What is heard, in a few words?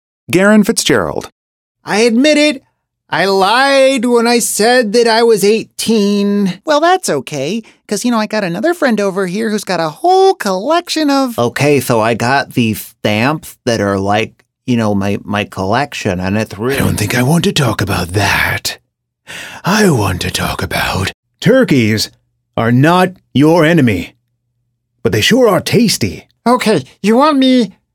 CHARACTER SAMPLES